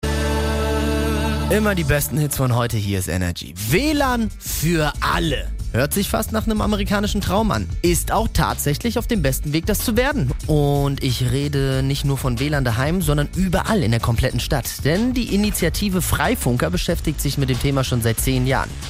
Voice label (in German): Radio; Radio ENERGY Nuernberg; Freifunk Franken